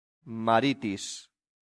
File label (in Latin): "maritis", latin; santillana